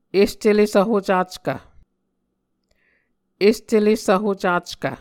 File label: "est ele svhocackv", Muscogee; Mvskoke Words with Voicing Consonants; A Dictionary of Creek/Muskogee; SIL International